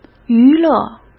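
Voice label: "娱乐", Mandarin Chinese; hsk; chinese-flashcards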